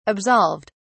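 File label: "absolved", British English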